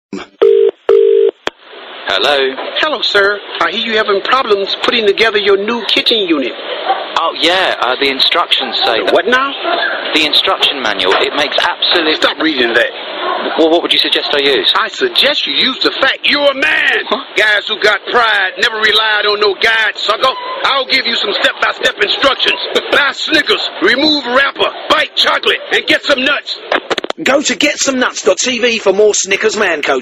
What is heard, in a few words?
radio advert; mr t snickers